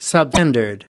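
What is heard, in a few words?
"substandard", American English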